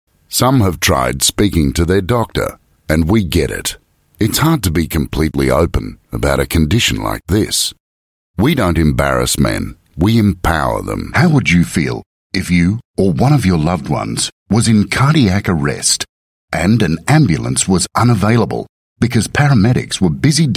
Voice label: AU English Public Service Medical Narration Voice Sample